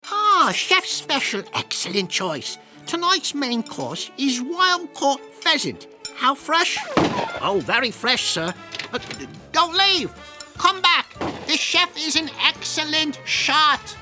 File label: Animation